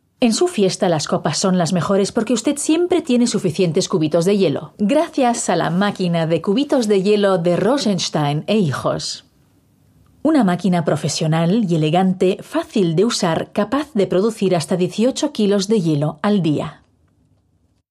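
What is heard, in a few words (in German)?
Spanish Werbung Dynamisch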